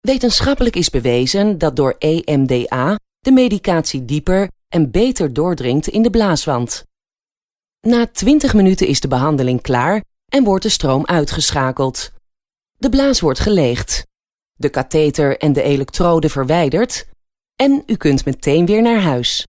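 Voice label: Dutch instructional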